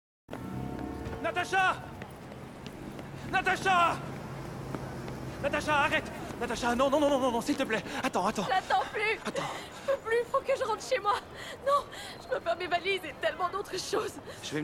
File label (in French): doublage